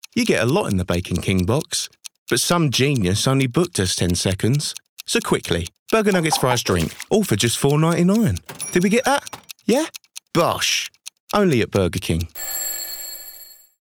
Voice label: male English commercial; burger king

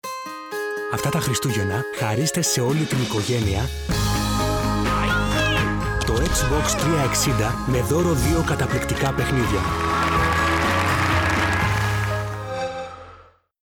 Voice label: male greek gaming commercial

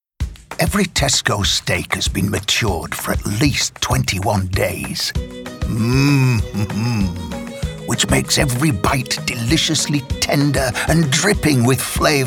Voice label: male English northern accent commercial; tesco food love stories; english northern accents

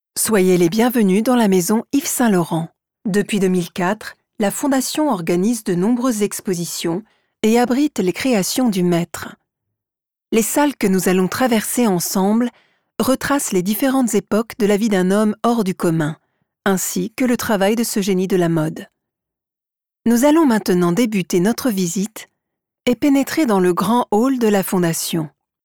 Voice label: female French audio guide

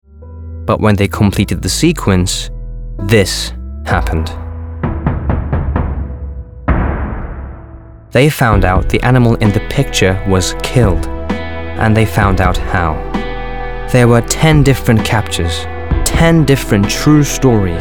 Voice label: male estuary english accent corporate; vestel

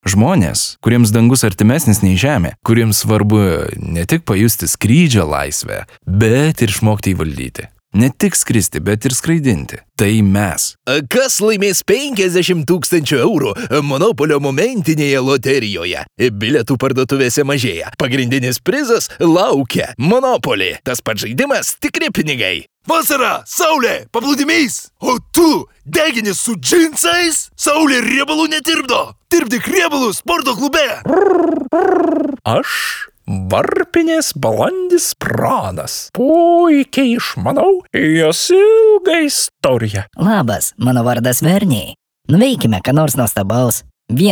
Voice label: male lithuanian animation compilation; voices; devine voices

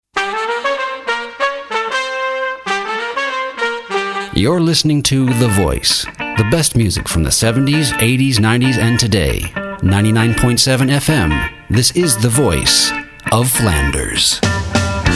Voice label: male english usa radio commercial; the voice

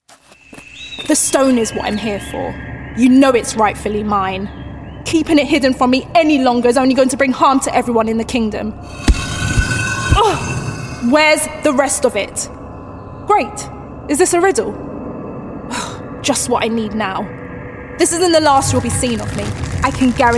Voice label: female English video gaming compilation

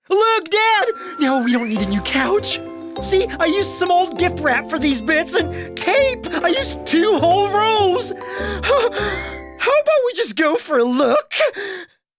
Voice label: male light USA accent animation; Devine Voices

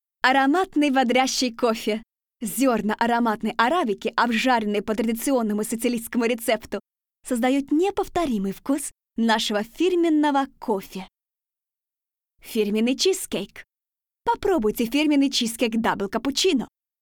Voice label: female, Russian commercial compilation

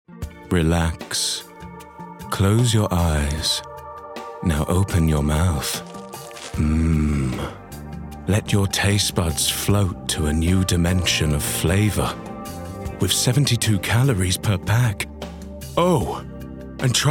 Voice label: male english commercial; hula hoops

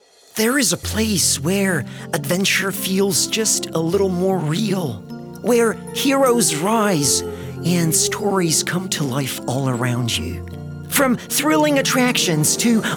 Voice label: male light usa accent commercial; Devine Voices

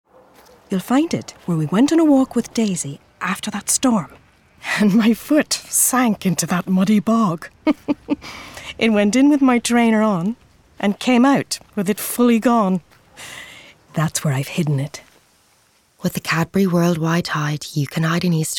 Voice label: female english irish neutral irish accent; irish northern; commercial

